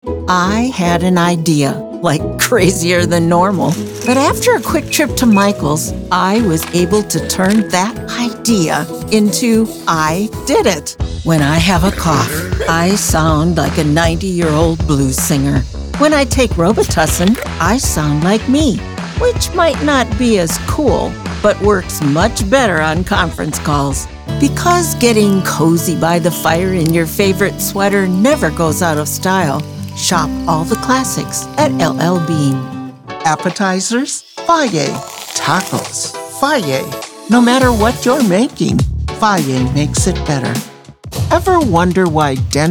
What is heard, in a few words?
narration showreel; Vibrant Voices